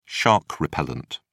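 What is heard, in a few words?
"shark repellent", British English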